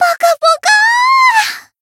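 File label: Voice healed, japanese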